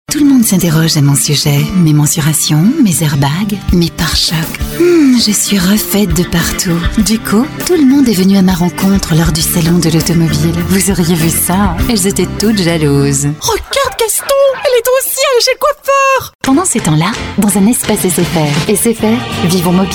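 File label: French TV Commercials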